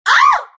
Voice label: naga pain; dota; naga siren

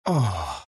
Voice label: happy; skywrath mage

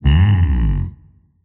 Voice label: happy; dota; tiny

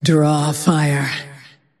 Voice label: attack pain; dota; drowranger

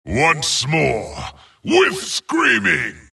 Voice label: wraith death; dota; skeleton king

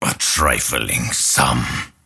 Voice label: lasthit pain; dota; grimstroke

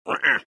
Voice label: anger; tinker

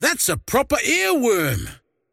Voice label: croak big; dota; largo

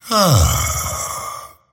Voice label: happy; dota; dragon knight

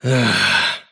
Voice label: anger; davion; dota; dragon knight

